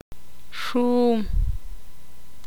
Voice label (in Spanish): "flor", ixil